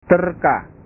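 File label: "terka", Indonesian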